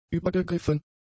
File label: "übergegriffen", German; adjectives